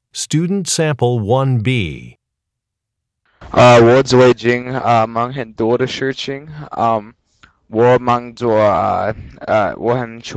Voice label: chinese conversation